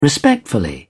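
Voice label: "respectfully", British English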